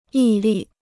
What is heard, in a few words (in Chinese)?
"毅力", Chinese